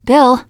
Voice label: name, teen girl; bill

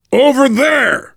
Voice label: look, voice, biker; Left 4 Dead 2; left4dead2 dlc3; survivor

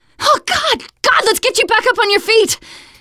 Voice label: revive friend loud, teen girl

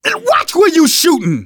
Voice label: friendly fire, manager, survivor, voice; Left 4 Dead 2; left4dead2 dlc2

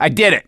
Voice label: misc directional, voice; gambler

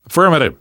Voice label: yes, namvet, survivor voice; Left 4 Dead 2; left4dead2 dlc3

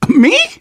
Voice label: tank in train yard, manager, survivor, voice; Left 4 Dead 2; left4dead2 dlc2

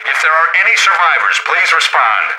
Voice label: radio initial broadcast

